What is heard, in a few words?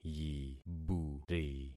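"yiburi", concatenated syllables; Affixing experiment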